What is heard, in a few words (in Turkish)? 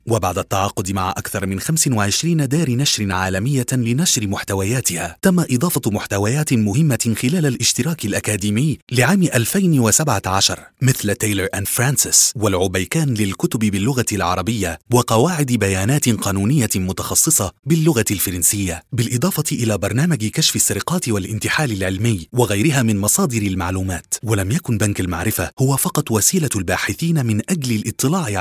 Arapca Erkek Klasik Arapca; erkek sesler; seslendirme